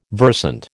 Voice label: "versant", American